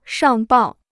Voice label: "上报", Chinese

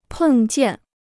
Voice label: "碰见", Chinese

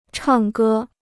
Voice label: "唱歌", Chinese

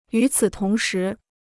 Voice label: "与此同时", Chinese

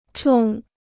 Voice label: "冲", Chinese

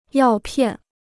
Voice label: "药片", Chinese

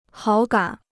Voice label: "好感", Chinese

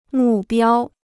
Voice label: "目标", Chinese

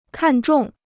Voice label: "看重", Chinese

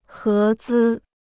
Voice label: "合资", Chinese

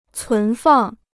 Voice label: "存放", Chinese

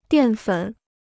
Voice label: "淀粉", Chinese

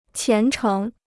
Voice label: "虔诚", Chinese

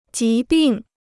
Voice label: "疾病", Chinese

